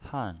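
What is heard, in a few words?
"han", Mandarin Chinese, fourth tone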